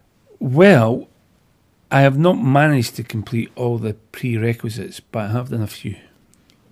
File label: interviewee male filler